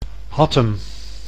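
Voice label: "Hattem", Dutch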